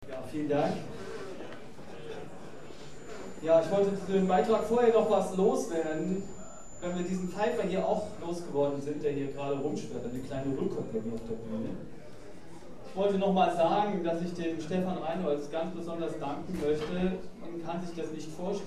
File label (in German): Ansage; Jazz-Club Trier e.V. (JCT); 11. Jazzgipfel (Tufa, Trier)